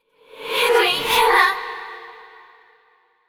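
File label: desperate, voice; yamiko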